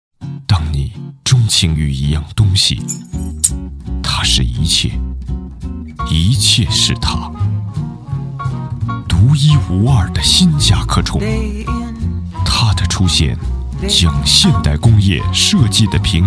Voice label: 男 广告 汽车广告（雅致磁性）; Volkswagen Beetle

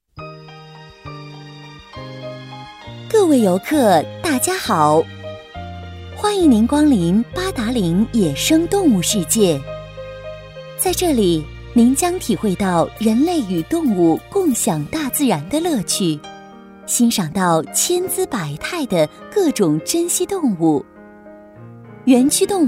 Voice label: 女 专题; 八达岭

